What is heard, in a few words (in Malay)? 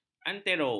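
"antero", Malay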